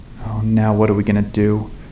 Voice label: "now what", hostage